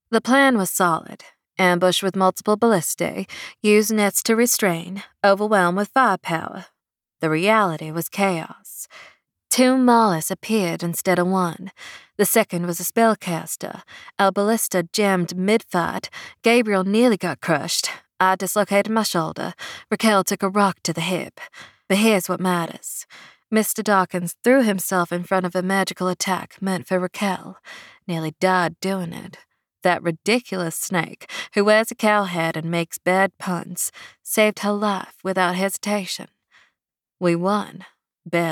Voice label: American Southern